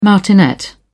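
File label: "martinet", British English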